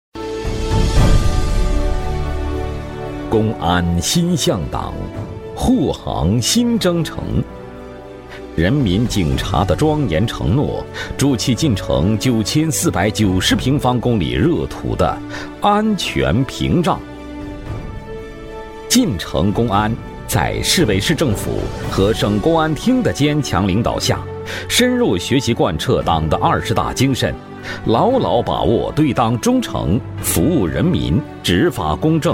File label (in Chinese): 男, 党建专题, 公安, 大气正气; 晋城公安; 高端内涵; 大气浑厚; 男128-党建专题《晋城公安》-大气正气